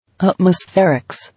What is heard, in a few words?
"Atmospherics", English